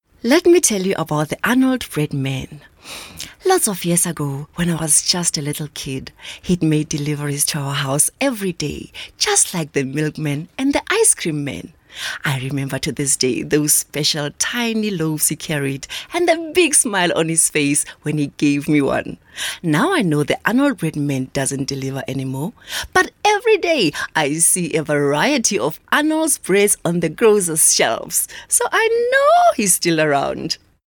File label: English Conversational